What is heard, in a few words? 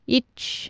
"ic", Anglo Saxon